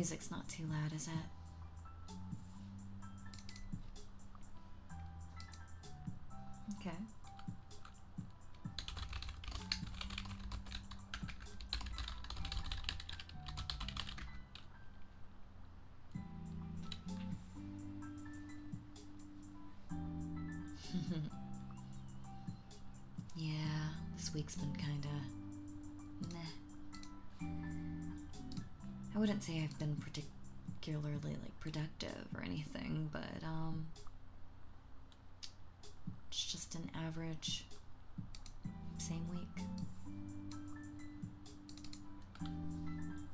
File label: rainy day work, Sleep Aid, ASMR; Sleep Aid Saturday - Chill Whi